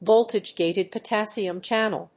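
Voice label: "Voltage-gated potassium channel", English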